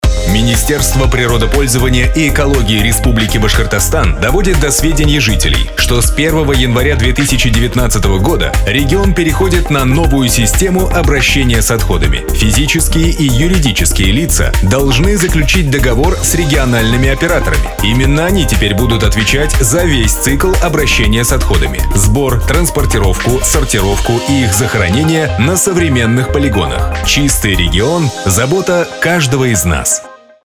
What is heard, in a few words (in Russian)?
аудиоролик рус; ЗеленаяБашкирия; ТКО